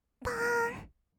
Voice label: "ぽーん", kawaii voice; ASMR; 色々なワンショット

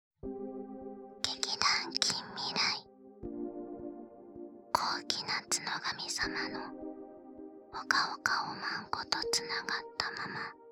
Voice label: タイトルコール; 高貴なツノガミ様のほかほかおマンコと繋がったまま、幸せにとろけ合う共寝

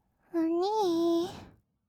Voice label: "おにぃ", kawaii voice; ASMR; 二人称

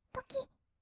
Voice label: "ドキッ", kawaii voice; ASMR; 色々なワンショット

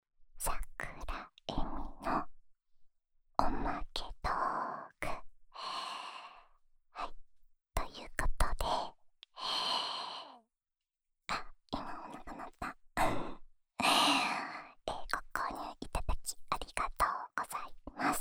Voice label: フリートーク

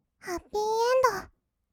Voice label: "ハッピーエンド", kawaii voice; ASMR; 色々なワンショット

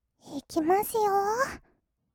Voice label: 「いきますよー」, kawaii voice; 1200 kawaii voice!!; 色々なワンショット

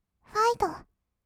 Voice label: "ファイト", kawaii voice; ASMR; 1200 kawaii voice!!; 音ゲー

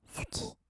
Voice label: "すきっ", kawaii voice; ASMR; 色々なワンショット

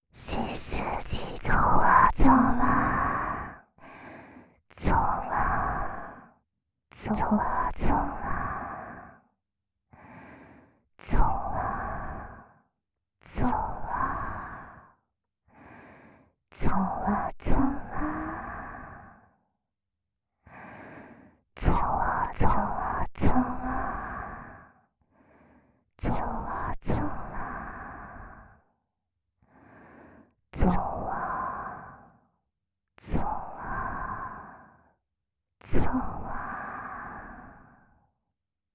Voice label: ひたすら擬音囁き; メスイキループ; ひたすら擬音囁きでドライ誘導

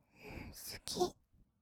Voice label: "すき…", kawaii voice; 1200 kawaii voice!!; 色々なワンショット

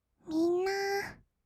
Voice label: "みんなー", kawaii voice; ASMR; 二人称; 1200 kawaii voice!!

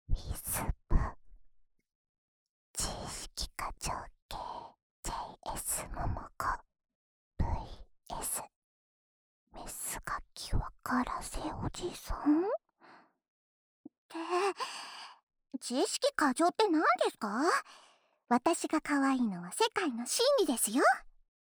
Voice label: タイトルコール, ASMR

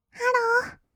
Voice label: ハロー, kawaii voice; ASMR; 挨拶; 1200 kawaii voice!!

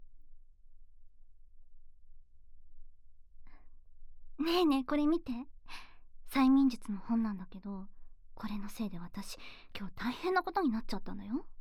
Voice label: 催眠音声; はじめてのさいみん; ASMR

